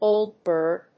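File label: "old bird", English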